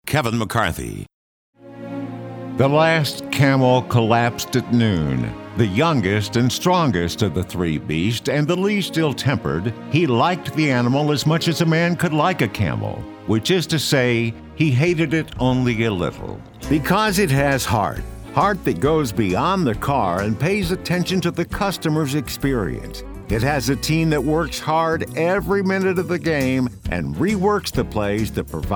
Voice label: Narr